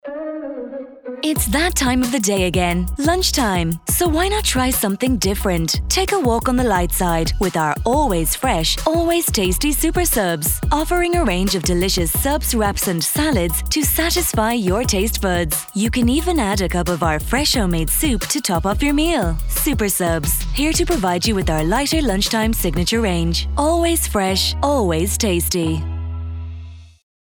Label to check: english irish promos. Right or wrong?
Right